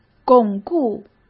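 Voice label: "巩固", Mandarin Chinese; chinese flashcards; hsk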